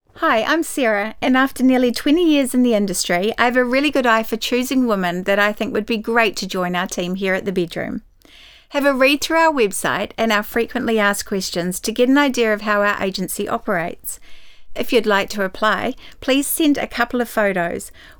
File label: Voice Record Girls